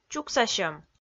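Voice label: "чуксасьӧм", Komi, female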